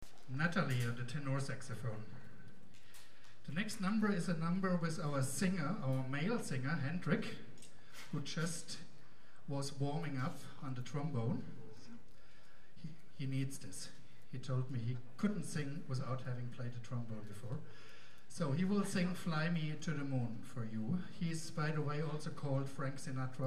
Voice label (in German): Ansage